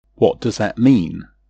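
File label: pronunciation "what does that mean", English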